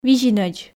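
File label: "визинӧдз", Komi, female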